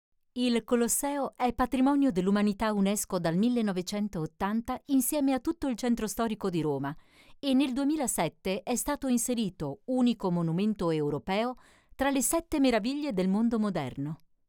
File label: Audio guide raw